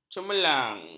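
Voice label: "cemerlang", Malay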